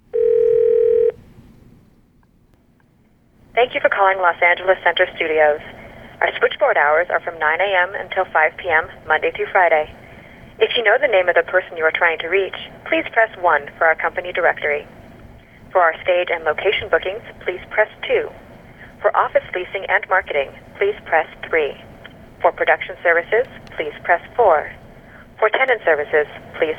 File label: IVR; LA Center Studios